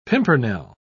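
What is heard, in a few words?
"pimpernel", British English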